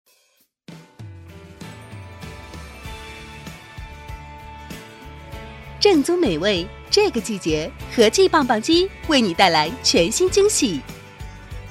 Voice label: 女, 广告对话, 棒棒鸡, 男女搭

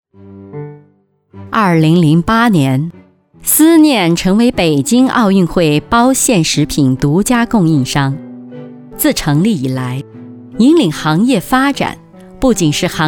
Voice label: 广告; 思念食品

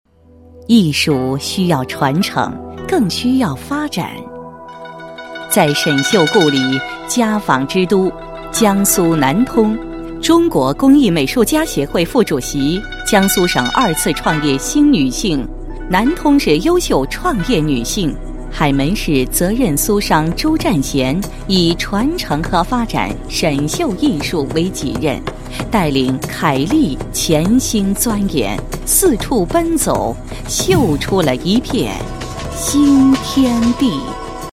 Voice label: 女 专题 人物介绍, 国语女声配音; 全能配音

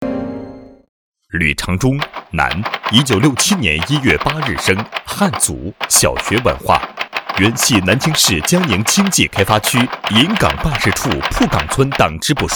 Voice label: 人物 端正

